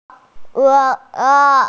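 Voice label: "huo3 che1", Mandarin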